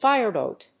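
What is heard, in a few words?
"fireboat", English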